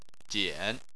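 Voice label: "jian", Chinese, third tone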